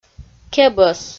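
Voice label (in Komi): "кебӧс", Komi, female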